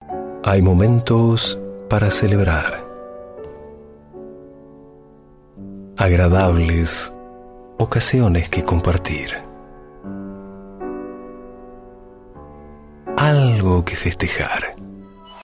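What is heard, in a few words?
Latin American Spanish Commercial; GoLocalise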